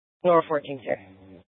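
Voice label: police dispatch, scanner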